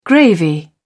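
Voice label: "gravy", British English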